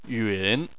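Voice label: "yun", Mandarin Chinese, third tone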